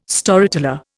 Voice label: "Storyteller", Indian